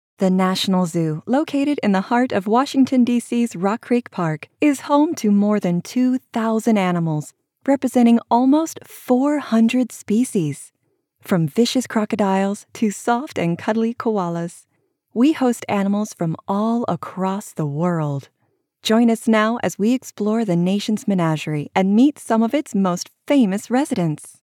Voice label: Zoo Promo; Female; English (North American)